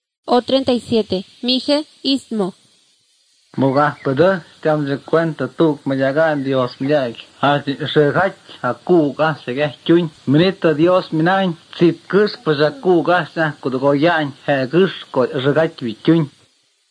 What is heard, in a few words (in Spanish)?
spanish mexico